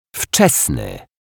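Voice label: "wczesny", Polish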